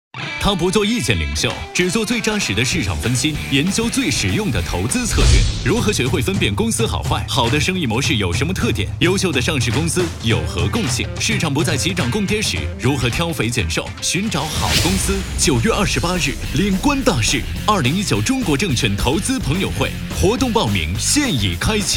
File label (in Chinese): 电台广告, 年轻